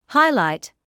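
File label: "highlight" English, British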